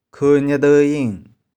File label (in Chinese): "kho3nih4deu1ing2", 玉環